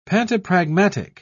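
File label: "pantopragmatic", British English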